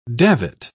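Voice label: "davit", English, British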